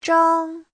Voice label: "zhang1", chinese; 张; Giáo trình chuẩn HSK3